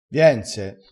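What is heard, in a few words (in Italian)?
"viense", dialetto crespinese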